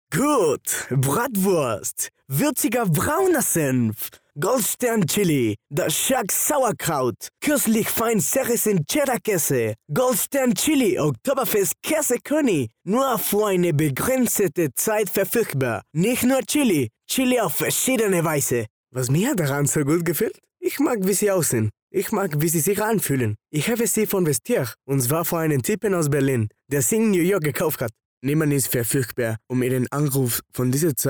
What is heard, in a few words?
German Commercial